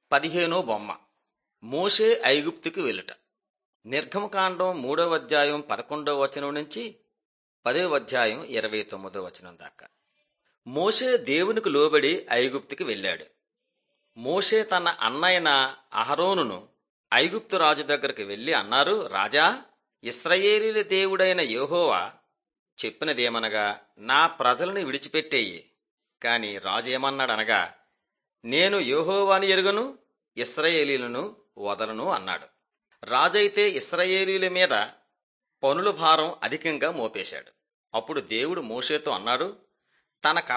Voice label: telugu bangladesh; mighty men of god